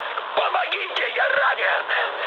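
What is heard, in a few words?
Russian damaged pilot mood low, speech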